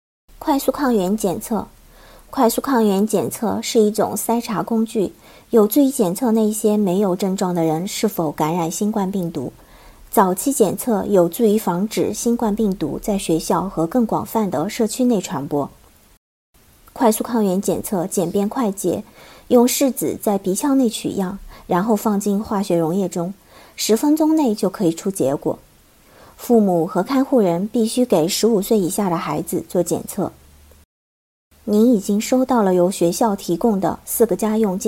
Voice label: rapid antigen test mandarin; covid-19; Infectious